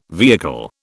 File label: "vehicle", British